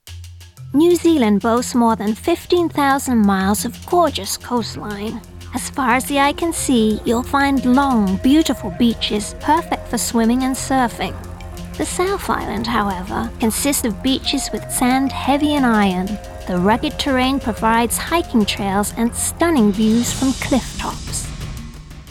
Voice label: British Voice Over Lady Travel Genre

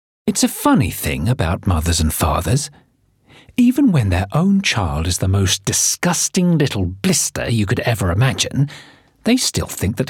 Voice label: AUDIOBOOK

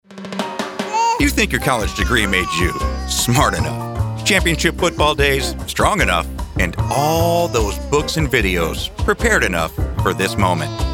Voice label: Commercial Demo

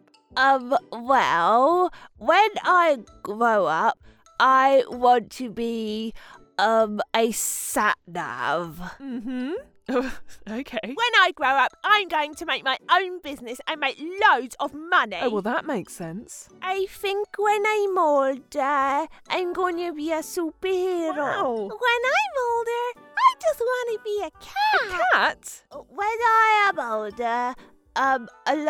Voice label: Kids Voices

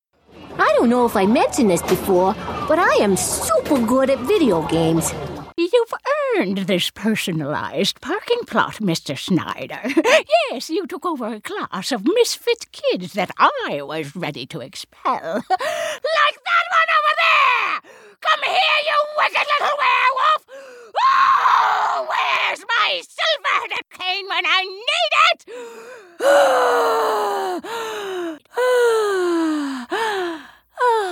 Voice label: Animation

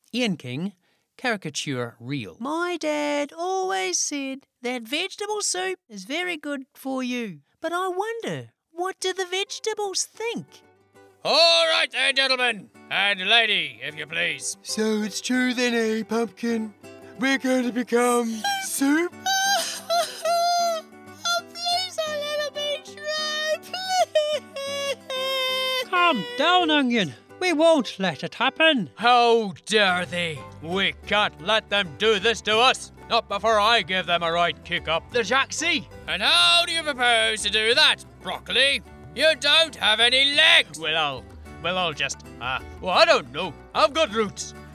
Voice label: Characature

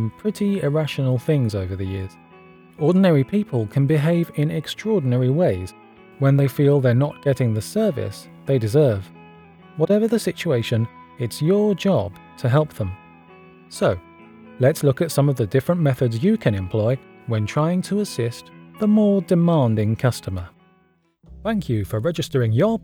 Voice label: Corporate

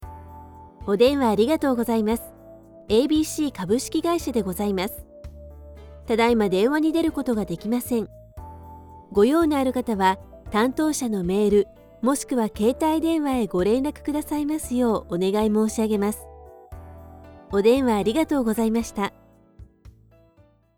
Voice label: IVR

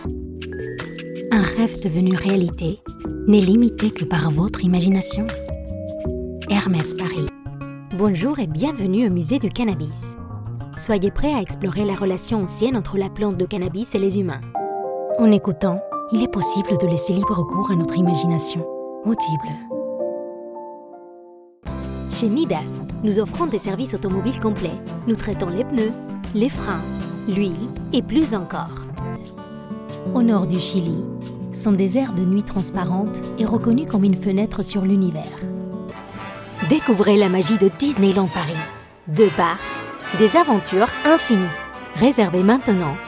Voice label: French

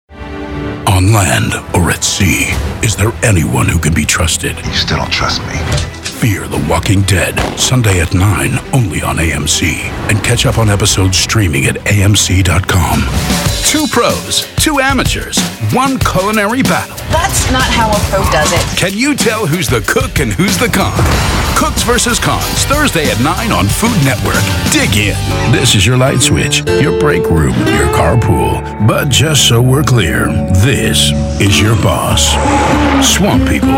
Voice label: US Promos

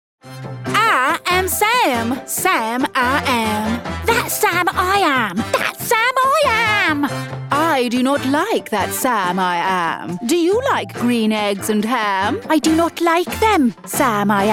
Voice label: narration

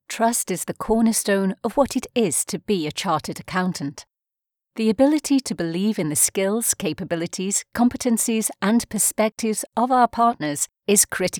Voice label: British Female Voice Over Corporate Reel

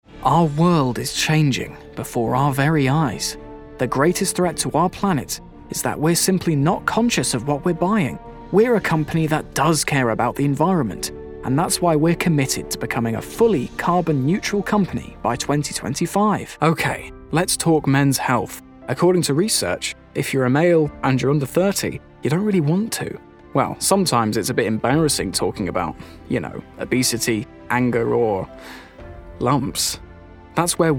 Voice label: Narration Showreel

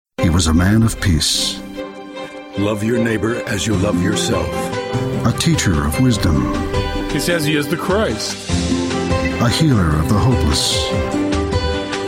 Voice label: Trailers Mix

